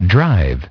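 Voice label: "drive", English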